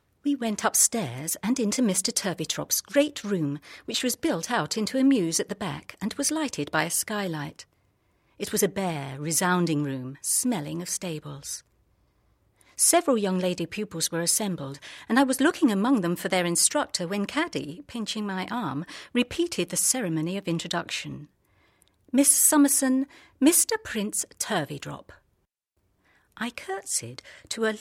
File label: Narration; Bleak House